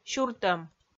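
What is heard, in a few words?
"сюртӧм", Komi, female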